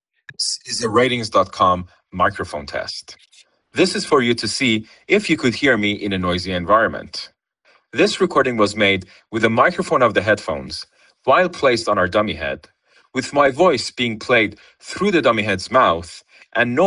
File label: voice iphone; when the earbuds are paired to an iPhone 16e; Apple AirPods Pro 3; using the default settings